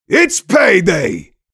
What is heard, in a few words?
mobster positive vocalization